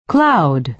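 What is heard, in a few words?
"cloud", American English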